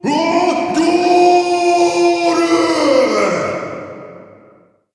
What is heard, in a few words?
scored voice